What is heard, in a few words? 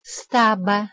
"staba", Proto Germanic